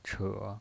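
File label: "che", Mandarin Chinese pinyin voice, third tone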